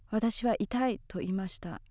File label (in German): "itai" schmerzend, japanese accent